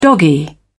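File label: "doggy", British English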